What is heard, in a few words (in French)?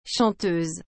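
"chanteuse", French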